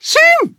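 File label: Voice Emotion Call Name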